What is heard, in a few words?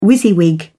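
"wysiwyg", English, British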